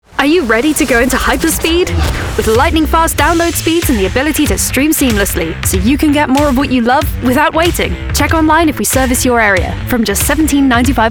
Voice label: Commercial; VOICEFOX